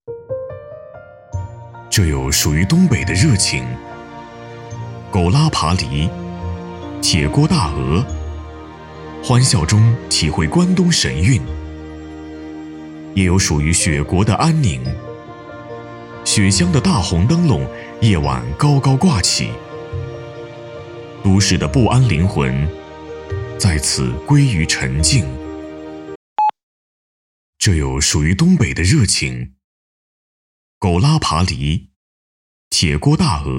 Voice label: 男 雪国

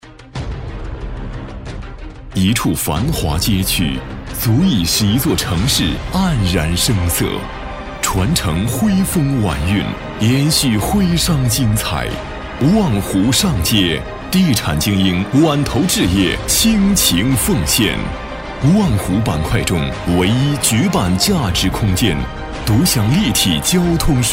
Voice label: 男 广告 地产类 磁性

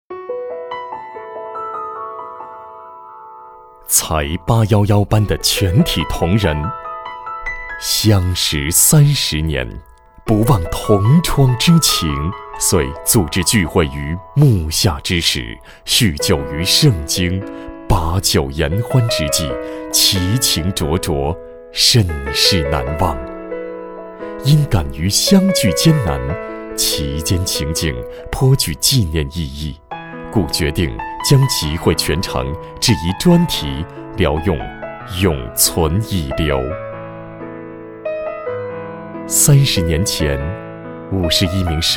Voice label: 男 宣传; 同学会

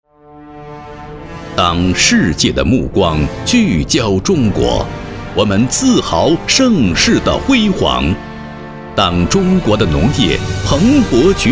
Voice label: 男; 大有肥业

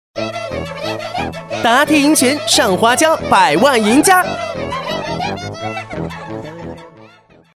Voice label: 男, 广告, 诙谐、夸张、快节奏

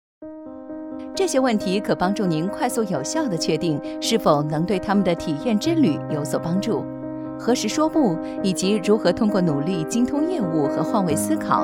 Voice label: 女 多媒体 知性 成熟 甜美 温柔 产品介绍

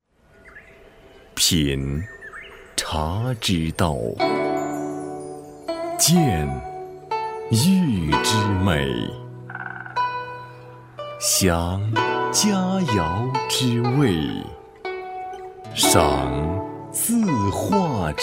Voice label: 男 茶 儒雅风格

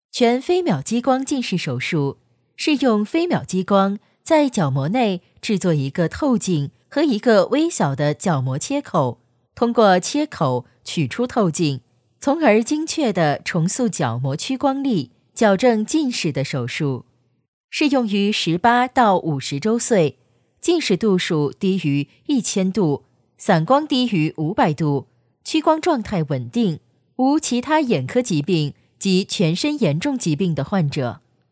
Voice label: 女 产品介绍; 全飞秒激光近视手术

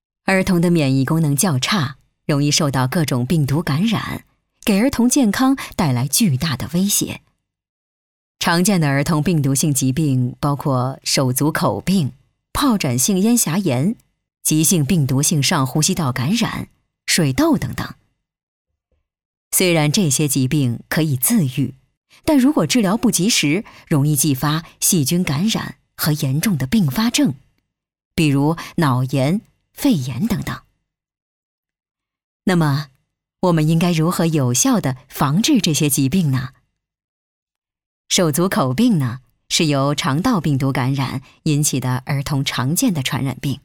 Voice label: 女 宣传片 【自然讲述-专业权威不失亲切】中年专家医师

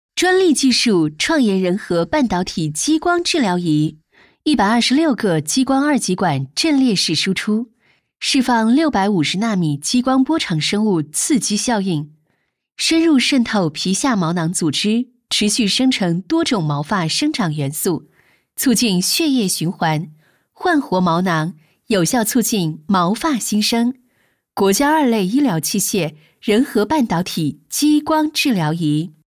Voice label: 女; 激光治疗仪

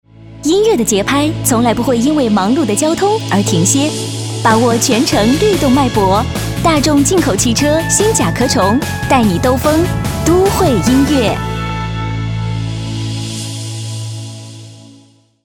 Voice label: 女; 音乐之声甲壳虫

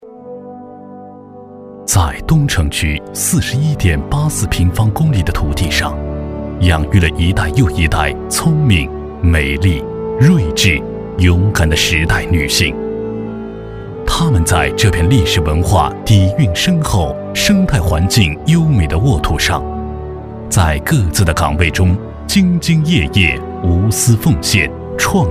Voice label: 男 巾帼楷模

